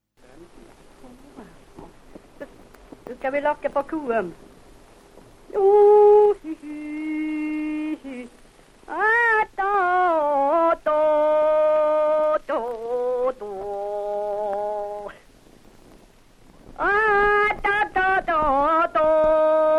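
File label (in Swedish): Hede Härjedalen, svenska sagor dialekter; Folkminnen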